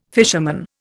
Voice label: "fisherman", Indian